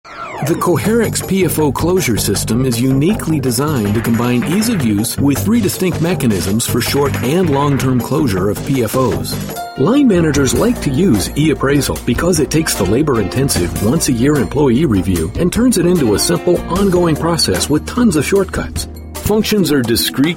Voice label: Elearning